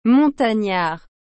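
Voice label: "montagnard", French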